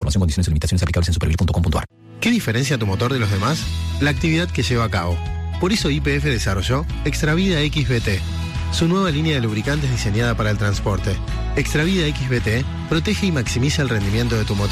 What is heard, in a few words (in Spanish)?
Radio Incendio Forestal; Radio Mitre Cordoba